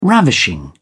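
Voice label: "ravishing", British English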